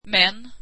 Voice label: swedish men

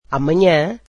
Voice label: portuguese tomorrow

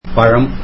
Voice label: tamil fruits

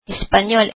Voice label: "Spanish", filipino

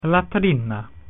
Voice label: latin toilet

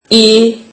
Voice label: turkish alphabet i

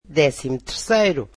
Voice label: "thirteenth", Portuguese

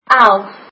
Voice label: eleven, afrikaans

